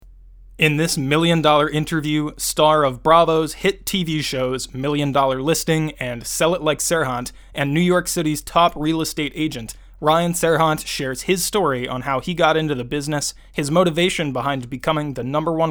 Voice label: interview promo; Serhant